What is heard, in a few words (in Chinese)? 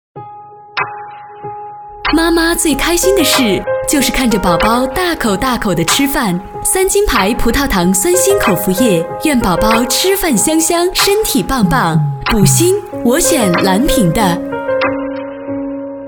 女国 广告 医院医药 亲切; 葡萄糖酸锌口服液